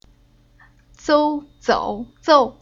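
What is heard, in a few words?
"Zou", chinese syllable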